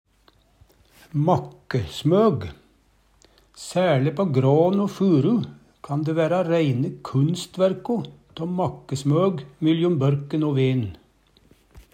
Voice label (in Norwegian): makkesmog, Numedalsmål